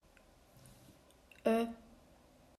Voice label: Mid front rounded vowel